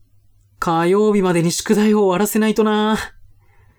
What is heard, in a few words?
man